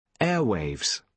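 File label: "airwaves", British English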